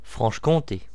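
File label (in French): "franche-conte", voices, br, french region; france region; gcompris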